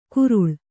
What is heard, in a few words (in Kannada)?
"ಕುರುಳ", kannada